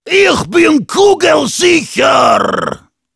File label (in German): Heavy special completed, de